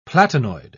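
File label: "platinoid", British English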